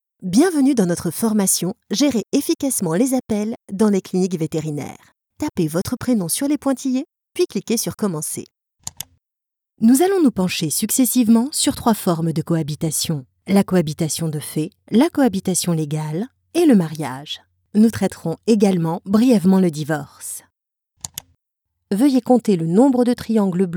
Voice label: french e-learning